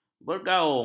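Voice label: "bergaung", Malay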